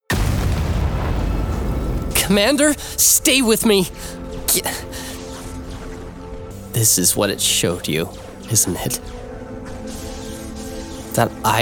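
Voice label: Gaming, voiceover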